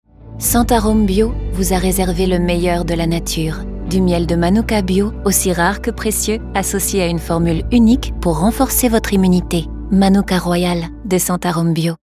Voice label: pub FR; Santarome

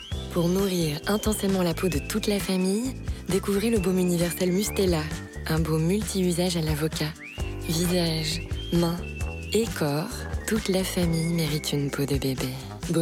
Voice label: pub TV; Mustela

